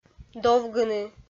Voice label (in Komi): "довгыны", Komi